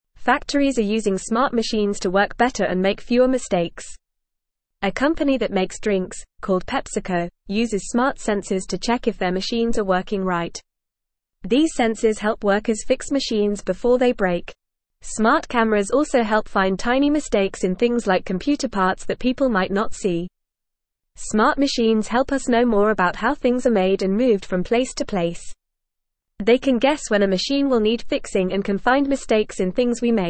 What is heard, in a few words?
English Lower Intermediate FAST Reading; Smart Help Machines Get Smarter in Making Things